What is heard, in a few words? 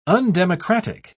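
"undemocratic", British English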